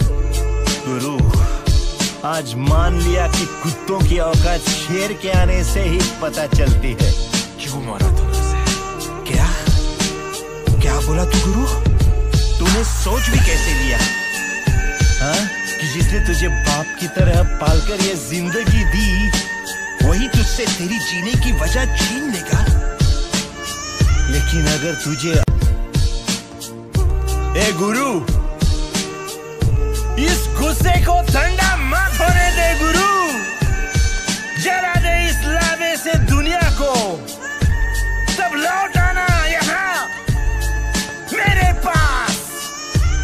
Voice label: Dialogue Ringtone; ringtones; Ek Villain

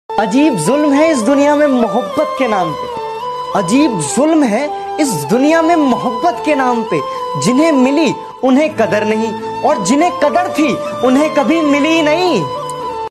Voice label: Sad Love Shayari Ringtone

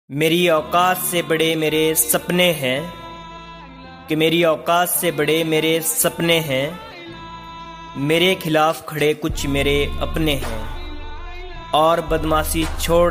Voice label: Bad Boys Attitude Shayari

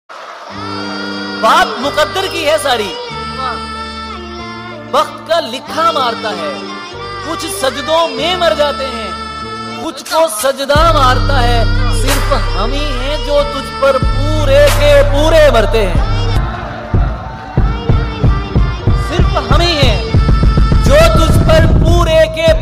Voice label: New Love Shayari Ringtone

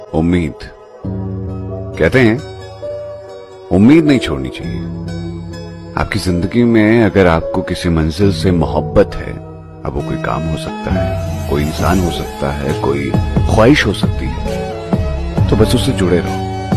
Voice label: Heart Touching Shayari Ringtone

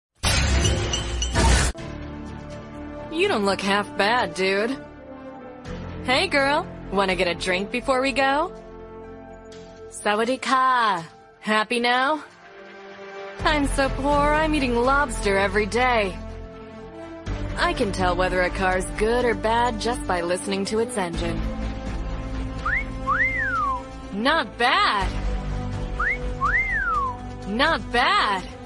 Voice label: Character Voice Ringtone; Pubg

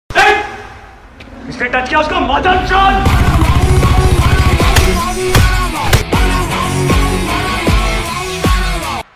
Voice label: Dialogue Ringtone; Kabir Singh

